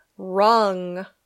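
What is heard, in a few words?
"rung", English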